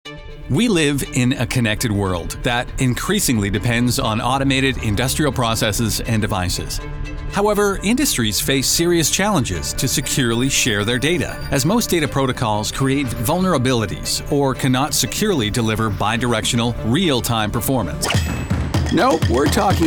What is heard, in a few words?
Corporate Narration